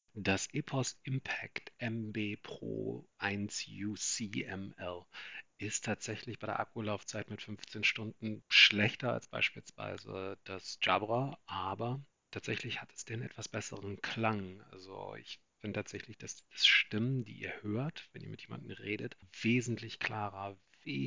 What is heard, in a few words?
EPOS IMPACT MB Pro 1 UC ML mic test